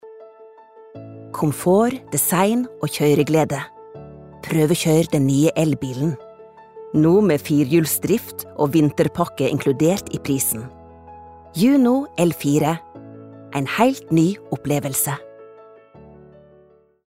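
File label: no commercial, Norwegian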